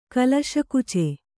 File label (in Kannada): "ಕಲಶಕುಚ", Kannada